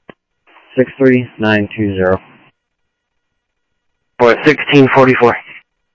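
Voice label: Sheriff East County, scanner audio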